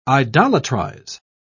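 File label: "idolatrize", English, British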